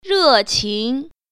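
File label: "re4qing2", Mandarin Chinese Tone Pair Drills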